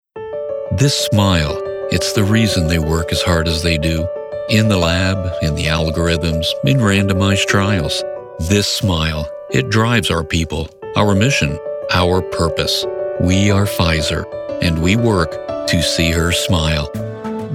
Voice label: Narration American man

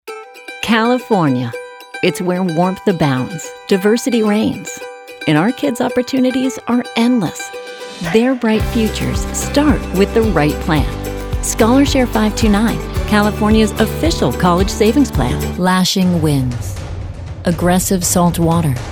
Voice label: Explainer ELearning

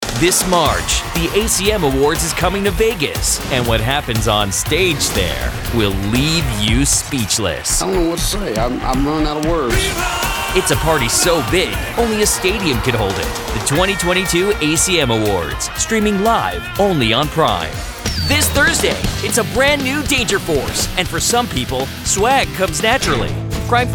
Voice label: Promo

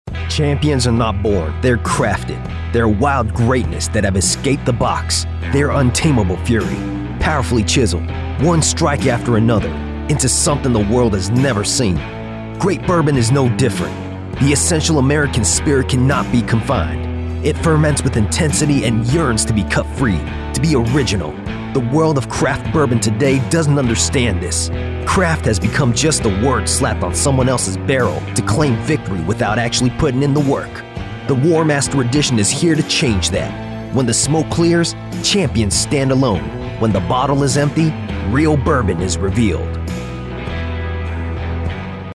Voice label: Male Commercial US Southern

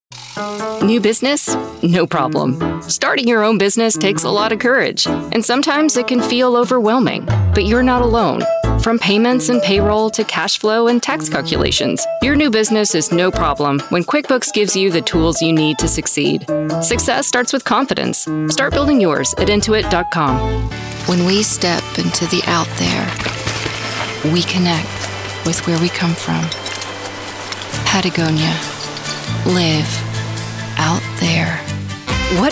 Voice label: Commrcial

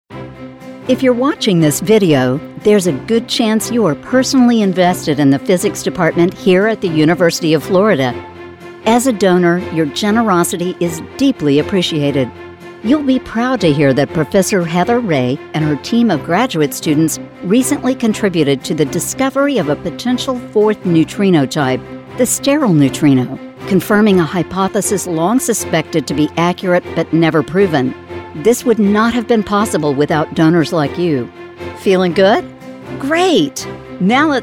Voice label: Corporate

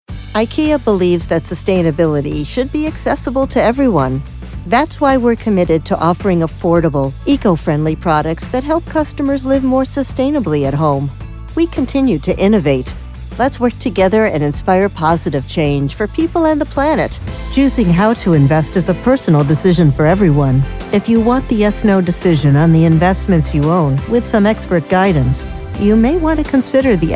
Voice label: Corporate Narration

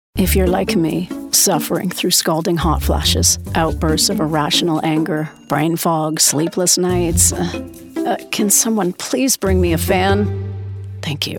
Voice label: Commercial English

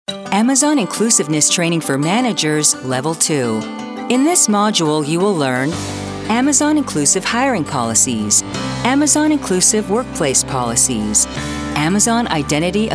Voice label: ELearning

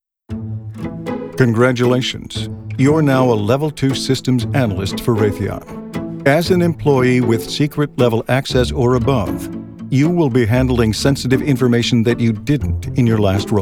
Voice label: E-Learning

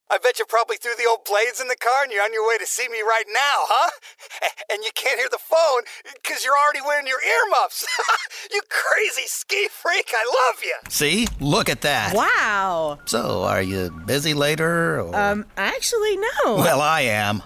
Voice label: Character

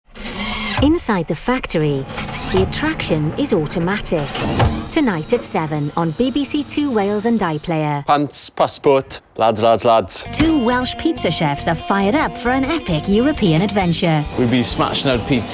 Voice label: TV Promo

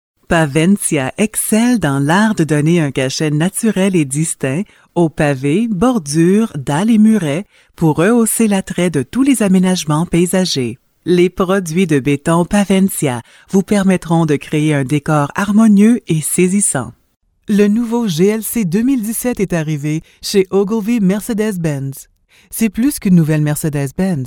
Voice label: Explainer French

